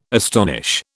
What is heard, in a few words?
"astonish", British